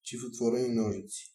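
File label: scissors small open desc, Bulgarian; tuxpaint-stamps; household; arttools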